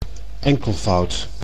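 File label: "enkelvoud", Dutch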